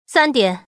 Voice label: woman lose; longhudazhan